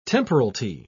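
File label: "temporalty", British English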